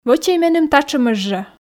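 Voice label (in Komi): вӧчӧй меным татшӧмӧс жӧ, Komi, female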